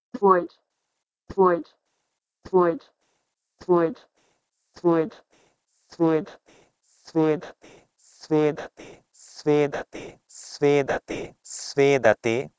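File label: Proto-Indo-European swoid to Sanskrit sveedate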